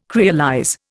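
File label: "creolize", Indian English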